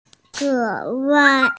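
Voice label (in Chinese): "ge2wai4", Mandarin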